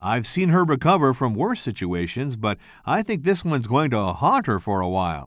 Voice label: commentator